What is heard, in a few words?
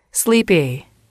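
"sleepy", English, female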